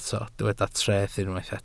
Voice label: "treth", Welsh

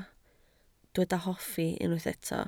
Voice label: "hoffi", Welsh

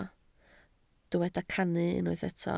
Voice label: "cannu", Welsh

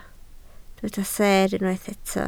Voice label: "seer", Welsh

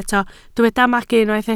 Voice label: "magu", Welsh

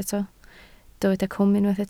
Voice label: "cwm", Welsh

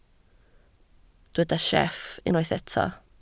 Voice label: "sieff", Welsh

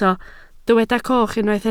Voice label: "coch", Welsh

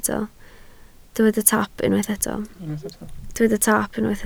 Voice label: "taap", Welsh

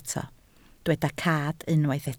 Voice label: "cad", Welsh

